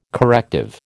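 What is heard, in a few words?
"corrective", American English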